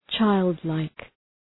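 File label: "childlike", English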